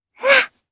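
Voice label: Vox Jump, Japanese; Lavril; kingsraid; jp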